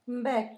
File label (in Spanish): perro, Zapoteco; Animales; San Pedro Mártir; INALI